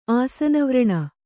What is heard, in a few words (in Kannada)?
"ಆಸನವ್ರಣ", kannada